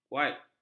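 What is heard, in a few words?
"watt", Malay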